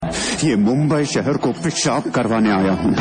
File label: Hindi Dialogue Ringtone; Businessman